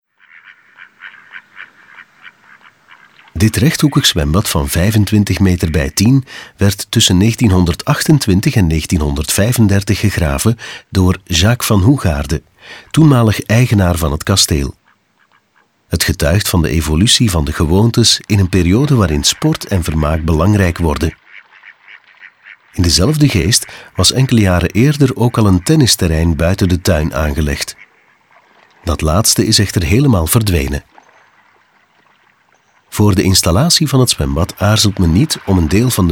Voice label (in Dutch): audioguide nl adulte; modave